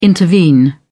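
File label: "intervene", British English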